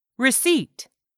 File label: "receipt", English; 3000 words